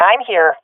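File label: reporting in, swat female; vo; Counter Strike Model Pack; CS:GO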